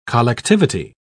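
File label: "collectivity", British English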